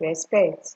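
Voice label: "respect", Nigerian Pidgin, female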